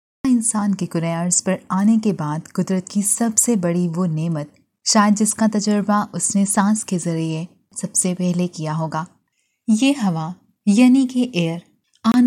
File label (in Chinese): 乌尔都语女 外语 小语种